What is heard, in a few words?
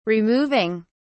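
"removing", English, British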